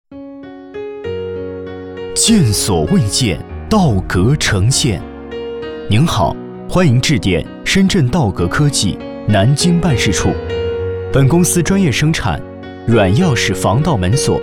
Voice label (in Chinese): 男39 科技门锁; 道格科技门锁